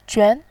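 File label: "juan", Mandarin, second tone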